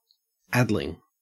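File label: "addling", Australian English; pronunciations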